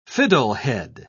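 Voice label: "fiddlehead", English, British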